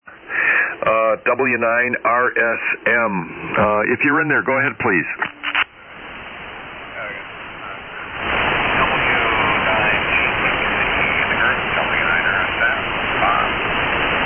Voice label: Drake TR-4 D-104 Mic & Amp; Whitestown, IN.